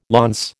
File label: "launce", American